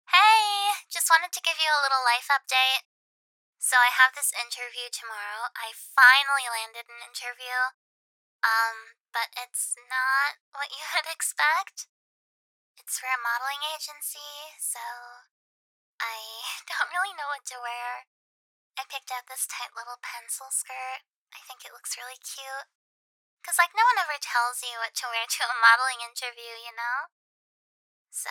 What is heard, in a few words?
voicemail